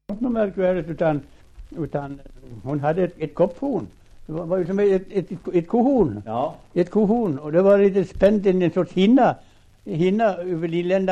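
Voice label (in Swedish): Västerfärnebo Västmanland, Svenska sagor, dialekter; Folkminnen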